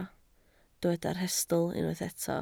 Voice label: "rhestl", Welsh